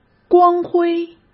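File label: "光辉", Mandarin Chinese; hsk; chinese-flashcards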